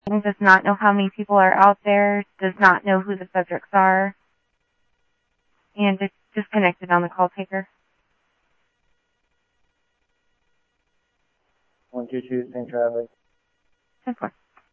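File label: Sheriff East County, scanner; SoutheastCenMesa; MCSO - EAST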